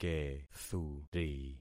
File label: "kethuri", concat syllables; Affixing experiment